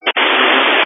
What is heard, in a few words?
Family Radio Service (FRS)